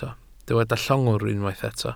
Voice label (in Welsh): "llongwr", Welsh